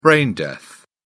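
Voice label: "brain death", British English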